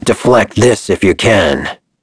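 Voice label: Vox Skill, voices heroes English; kingsraid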